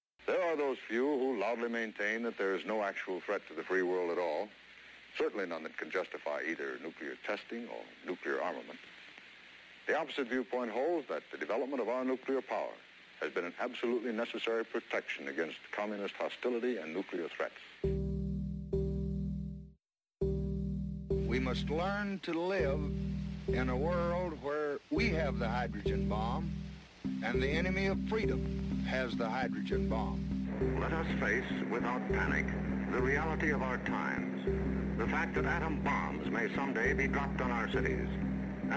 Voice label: intro voiceover